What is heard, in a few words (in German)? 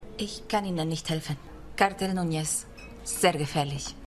Mexik Kellnerin, Stimmproben; Revolution